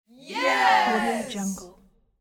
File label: teen small group yes; applehillstudios